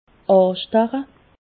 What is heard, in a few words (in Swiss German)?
"astacha", Alsace